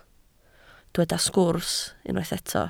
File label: "sgwrs", Welsh